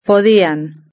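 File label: "podían" Spanish; verb-conjugator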